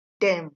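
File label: "dem", Nigerian Pidgin, female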